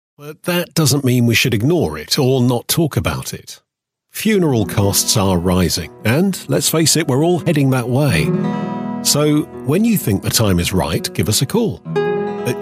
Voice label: commercials softsell